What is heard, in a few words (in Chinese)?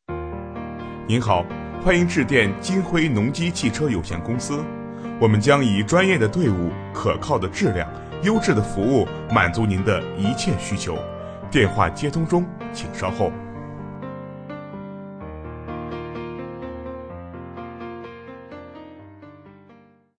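【男彩铃】轻快版彩铃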